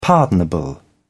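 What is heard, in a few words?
"pardonable", British English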